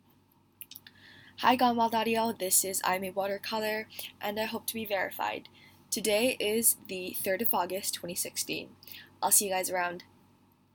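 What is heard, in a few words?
Female Verification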